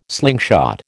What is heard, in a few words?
"slingshot", American